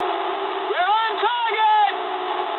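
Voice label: English near waypoint mood high; War Thunder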